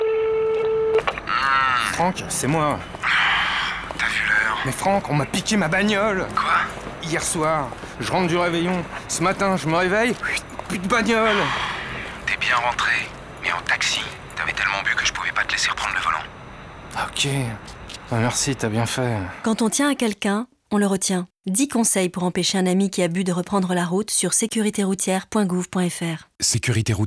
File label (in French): PUB RADIO; Sécurité routière